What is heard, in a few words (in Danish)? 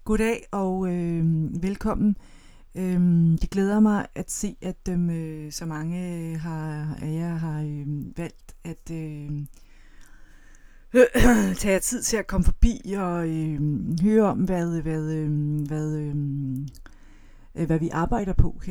Langsomt og oh